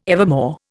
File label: "evermore", Indian